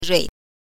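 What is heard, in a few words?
"rui" Mandarin Chinese, neutral tone, pinyin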